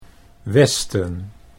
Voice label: "westen", Dutch